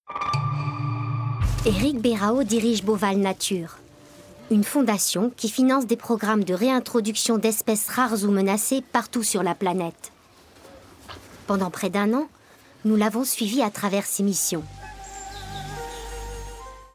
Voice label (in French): Narration doc; Beauval; TF1